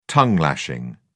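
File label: "tongue-lashing", British English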